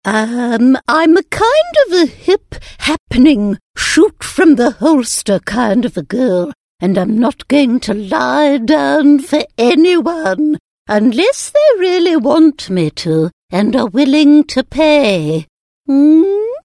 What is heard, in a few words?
Character Old Lady